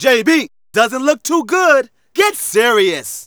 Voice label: Narration

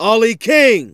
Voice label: Narration

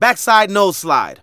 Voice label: Narration; Ollie King

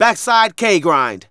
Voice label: Narration

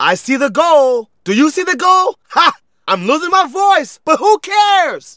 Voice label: Narration

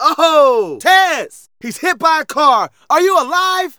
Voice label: Narration

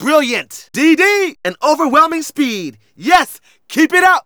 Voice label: Narration; Ollie King

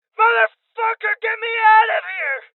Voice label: Voices Injury Male Young; Rebel Cops

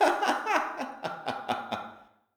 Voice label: Diner Voice

